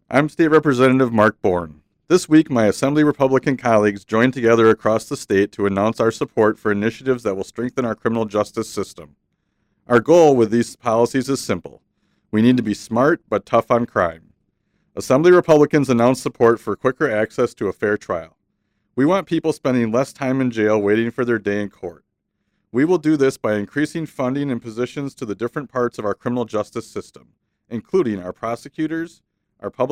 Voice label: radio address